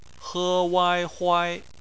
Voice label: "huai", Chinese; phonetics; bpmf